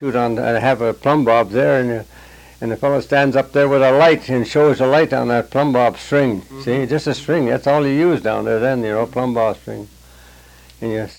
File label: Personal history with 87 year old male Chisholm Minnesota, american english dialect recordings